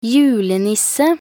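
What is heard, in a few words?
"julenisse", Norwegian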